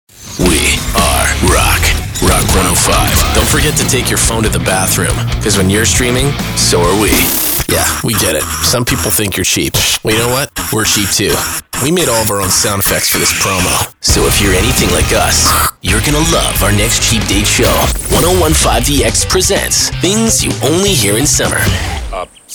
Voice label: radio imaging men rock